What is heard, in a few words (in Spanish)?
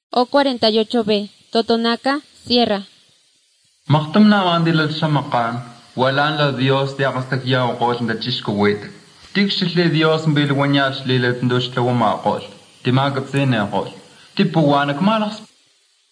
spanish mexico